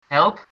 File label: "help", Dutch